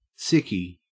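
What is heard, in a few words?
"sickie", Australian English; pronunciations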